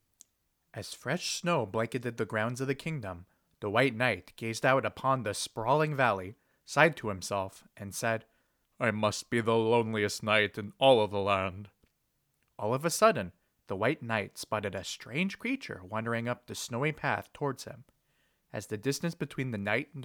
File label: Narration Voice